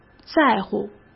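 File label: "在乎", Mandarin Chinese; chinese-flashcards; hsk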